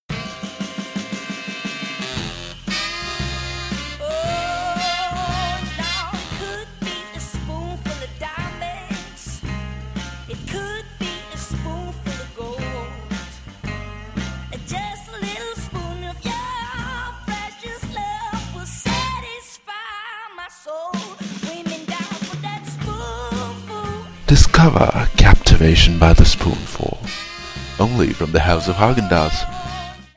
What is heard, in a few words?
TVC; haagan-dazs